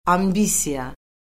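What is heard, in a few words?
"ambisiya", Azerbaijani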